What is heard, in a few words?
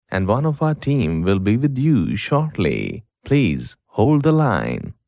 English voice sample